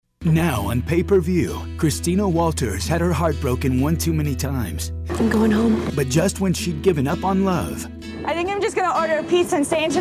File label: male; Spotland Productions